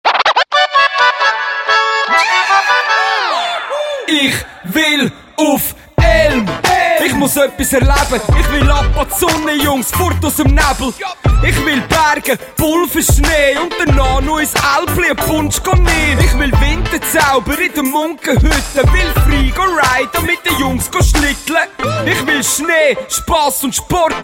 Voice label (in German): Radiospot Rap; Sportbahnen Elm